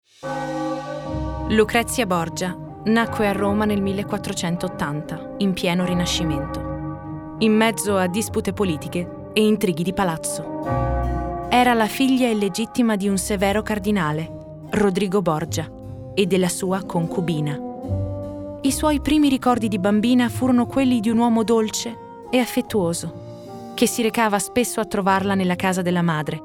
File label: female italian documentary